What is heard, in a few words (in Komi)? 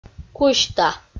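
"кузьта", Komi-Zyrian, female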